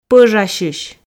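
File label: "пӧжасьысь", Komi, female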